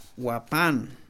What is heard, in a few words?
"pan", Mam; INALI